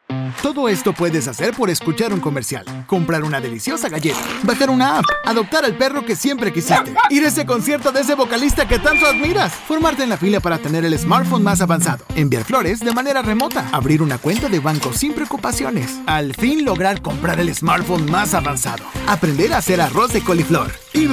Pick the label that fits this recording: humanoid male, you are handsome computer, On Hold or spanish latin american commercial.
spanish latin american commercial